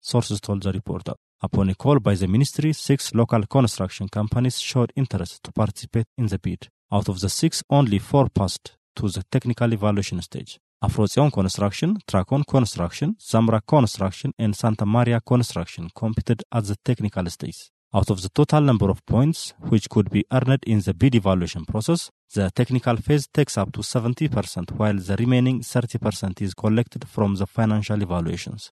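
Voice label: Amharic, male, English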